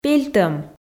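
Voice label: "пельтӧм", Komi, female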